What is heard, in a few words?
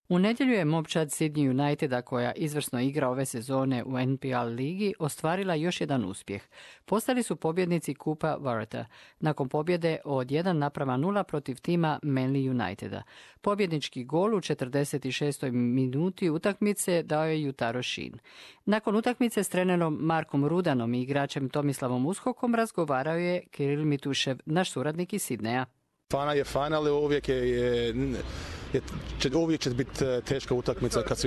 croatian; sydney united at the top